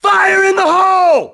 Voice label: fire, grunt; nimble grunt